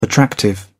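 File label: "attractive", British English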